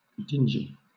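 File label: "dingy", British English; pronunciations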